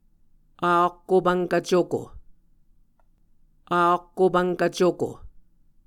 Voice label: "akkopvnkv cuko", Muscogee; Mvskoke Words with Voicing Consonants; ahkopvnkv-cuko; A Dictionary of Creek/Muskogee; SIL International; Onvkv Ohhvyayices

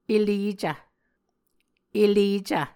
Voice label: "eleecv", muscogee

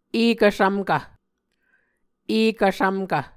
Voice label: "ekvsvmkv", muscogee

